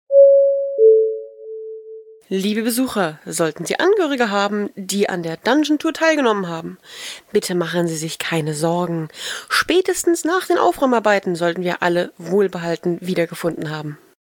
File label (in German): durchsage